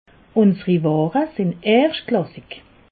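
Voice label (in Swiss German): "ware", Alsatian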